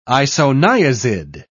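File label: "isoniazid", British English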